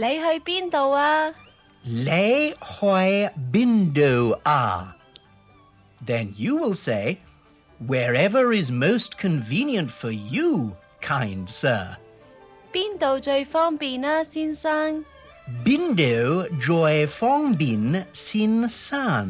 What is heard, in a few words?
Cantonese Hailing a Taxi